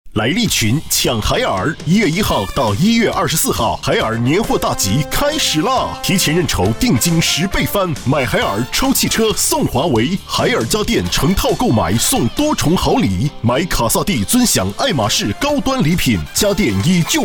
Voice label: 欢快促销; 利群海尔

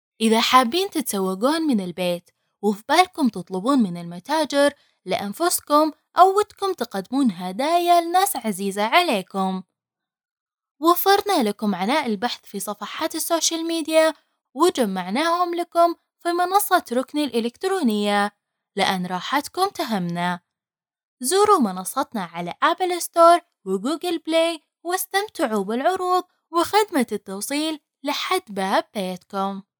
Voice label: 阿拉伯语女

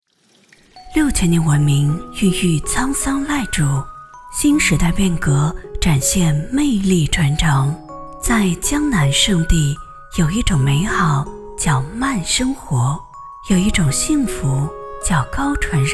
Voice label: 专题清新温柔 宣传片; 淳城

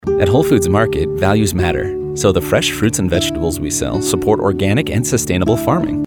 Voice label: 外籍英男 生鲜广告

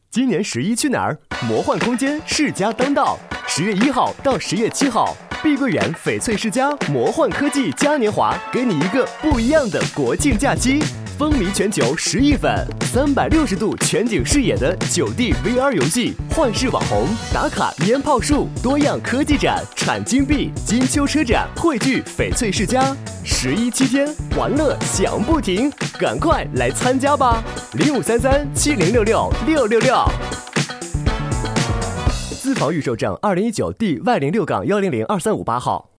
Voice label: 电台广告动感活力; 碧桂园

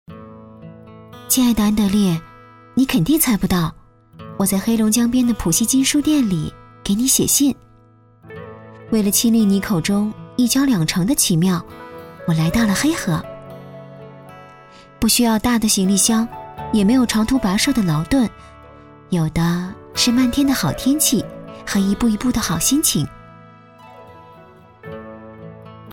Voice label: 微电影 旁白 自然 讲述 甜美 纯真 20岁 年轻 女孩 写信 自白

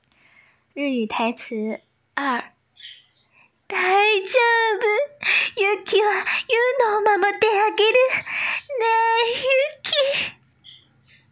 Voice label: 日语 台词

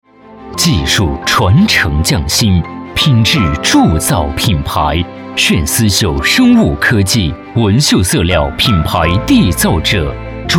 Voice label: 品牌广告 大气厚重; 炫思绣生物科技

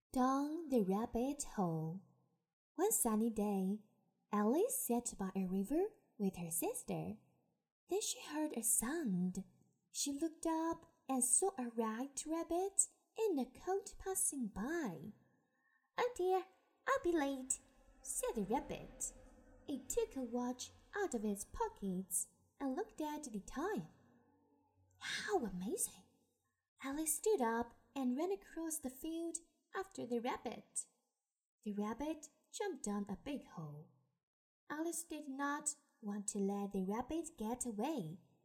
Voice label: 英文配音

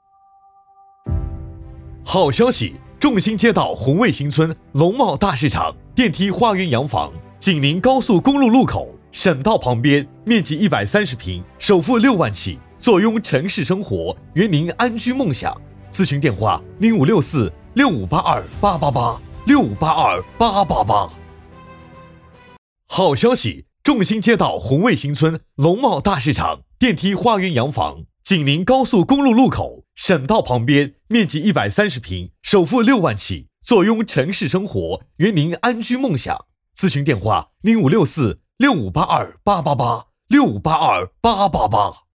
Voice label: 房地产 试音

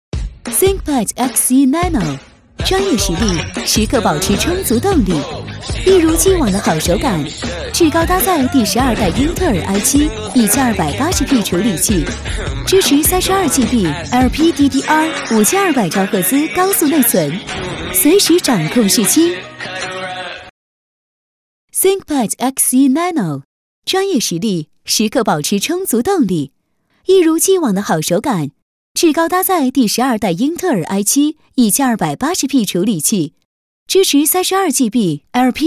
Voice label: 时尚广告

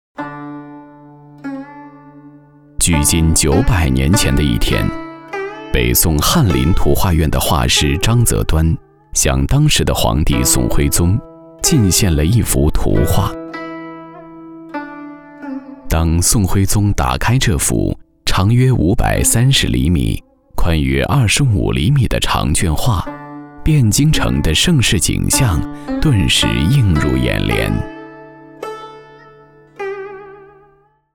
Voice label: 纪录片历史感

